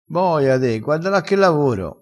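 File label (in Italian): "boia de", vocabolario dialettale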